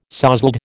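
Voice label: "sozzled", American English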